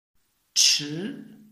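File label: "chi", Chinese, tone 2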